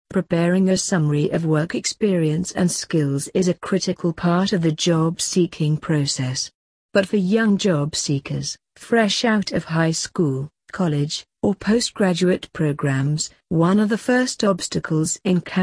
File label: Read Aloud model answer, pte speaking